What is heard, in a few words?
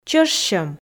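"чӧжсьӧм", Komi, female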